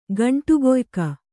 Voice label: "ಗಂಟೆಕಾಯಕ", Kannada

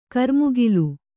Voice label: "ಕ್ರಿಮಿಕುಲ", Kannada

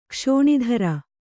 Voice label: "ಕ್ಷೋಣೀಧ್ರ", Kannada

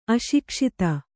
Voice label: "ಅಶಿಕ್ಷಿತ", Kannada

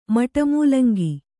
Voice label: "ಮಟಮೂಲಂಗಿ", kannada

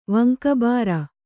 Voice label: "ವಂಕಬಾರ", Kannada

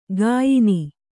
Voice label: "ಗಾಯನಿ", kannada

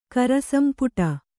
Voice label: "ಕರಸಂಪುಟ", Kannada

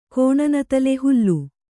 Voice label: "ಹೋಲು", kannada

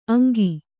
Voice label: "ಅಂಕಿ", Kannada

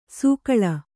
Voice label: "ಸೊಗುಳಿ", Kannada

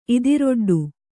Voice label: "ಇದಿರೊಡ್ಡು", Kannada

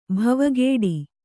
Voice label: "ಭವಗೇಡಿ", Kannada

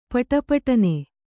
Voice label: "ಪುಟಪುಟನೆ", Kannada

